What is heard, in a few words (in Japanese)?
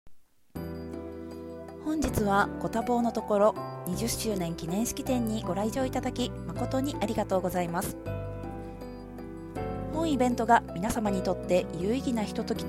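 式典, ナレーター MC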